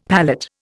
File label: "palette", Indian; Dictionary